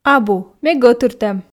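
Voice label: "абу ме гӧтыртӧм", Komi, female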